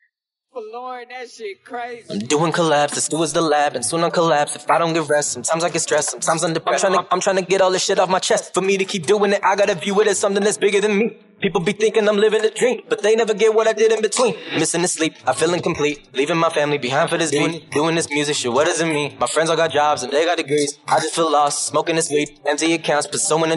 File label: Acapellas, 152BPM Key Bm